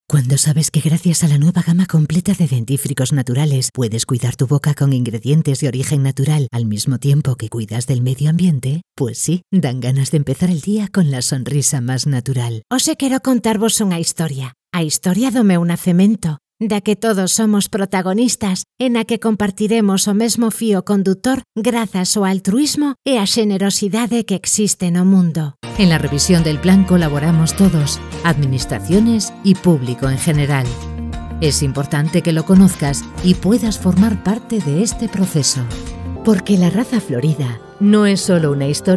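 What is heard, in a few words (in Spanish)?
generico España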